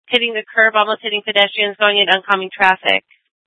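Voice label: Police Department Dispatch, scanner; TOPAZ Regional Wireless Cooperative (TRWC) - Mesa Simulcast; Mesa PD; 4 Superstition Dispatch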